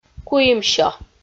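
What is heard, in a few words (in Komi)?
"куимсё", Komi-Zyrian, female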